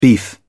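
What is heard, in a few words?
"beef", English, British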